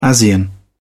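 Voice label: "asean", British English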